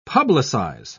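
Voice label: "publicise", British English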